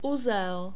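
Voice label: "uzel", czech, male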